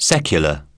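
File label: "secular", English; oxford api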